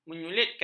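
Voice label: "menyulitkan", Malay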